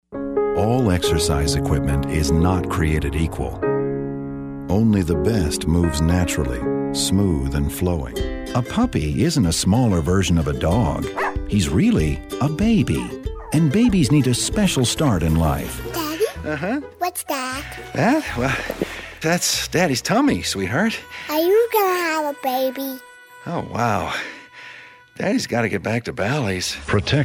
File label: Commercial